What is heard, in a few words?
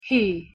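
"he", English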